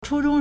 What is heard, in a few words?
"chūzhōng", Chinese; 初中; junior high school; middle school; Education